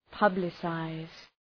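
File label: "publicize", English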